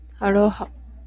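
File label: "aloha", Japanese, female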